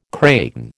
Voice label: "kraken", American

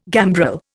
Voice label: "gambrel", Indian

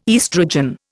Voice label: "estrogen", Indian

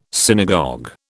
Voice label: "synagogue", British

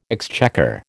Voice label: "exchequer", American

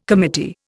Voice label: "committee", Indian

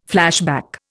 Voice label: "flashback", Indian

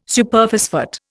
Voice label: "Superphosphate", Indian

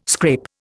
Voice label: "scrape", Indian

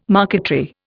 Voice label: "marquetry", Indian

